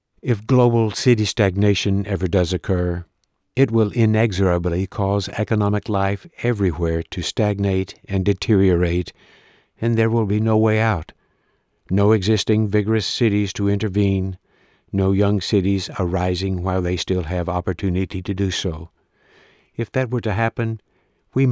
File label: narration samples, Non-fiction Economics; Cities and the Wealth of Nations